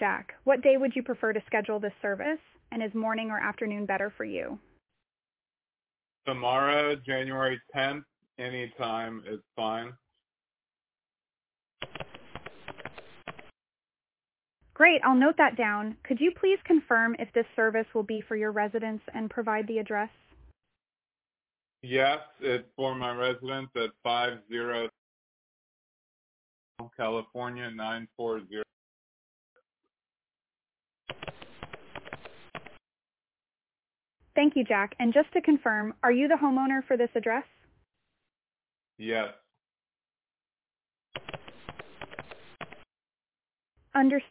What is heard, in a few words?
Call needing shower cartridge replacement